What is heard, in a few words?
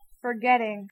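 pronunciation "forgetting", English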